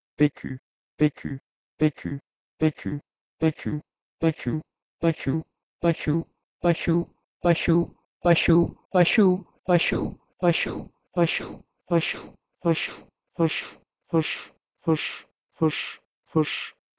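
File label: Proto-Indo-European peku to Ossetian fysh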